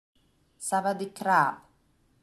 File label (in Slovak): "dobry den" chlapec